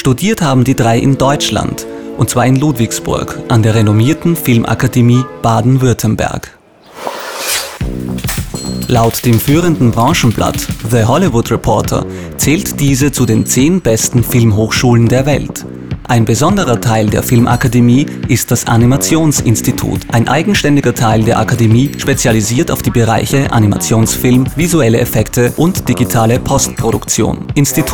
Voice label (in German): Offsprecher Trickfilm